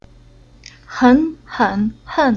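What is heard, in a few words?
"Hen", Chinese syllable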